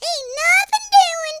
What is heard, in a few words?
"boring", Cowgirl; speech